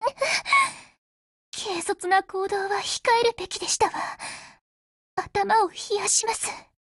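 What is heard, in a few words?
BREAK Japanese, Voice; GF Alarm; G36CMod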